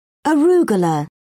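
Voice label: "arugula", British English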